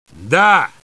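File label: "yes", English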